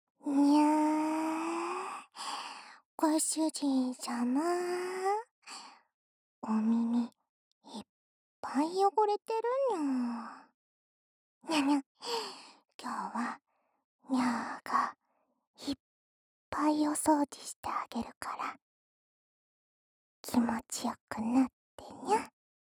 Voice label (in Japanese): おまけ：幸せいっぱいの耳かき膝枕にゃ～♪, ASMR; SE無し